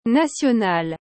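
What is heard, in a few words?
"national", French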